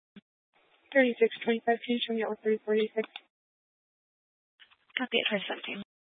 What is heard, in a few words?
Police Dispatch, scanner; Police 1 Dispatch